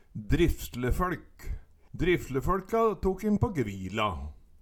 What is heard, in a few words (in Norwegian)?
"drifsleføLk", Numedalsmål